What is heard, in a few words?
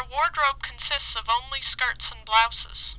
nonlinear smartphone mic; microphone signal; Microphone signal spectrogram and microphone signal